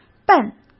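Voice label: "笨", Mandarin Chinese; chinese flashcards; hsk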